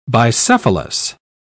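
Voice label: "bicephalous", British English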